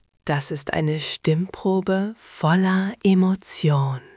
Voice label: Verführerisch, stimmen; moods

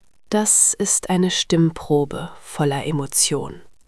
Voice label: Traurig, stimmen; moods

